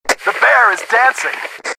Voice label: walkie lead vo; grom